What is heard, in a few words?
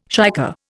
"shicker", Indian